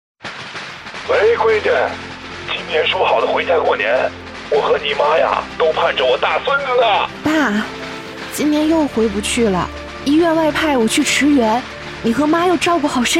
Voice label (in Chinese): 公益广告; 抗击疫情